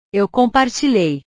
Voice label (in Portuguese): "eu compartilhei", portugues; compartilhar; portugues/verbos